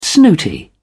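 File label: "snooty", British English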